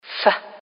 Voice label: sound f